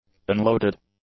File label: "unloaded", English; adjectives